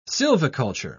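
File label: "sylviculture", British English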